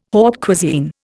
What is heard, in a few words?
"haute cuisine", Indian